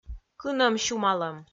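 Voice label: "кынӧм сюмалӧм", Komi-Zyrian, female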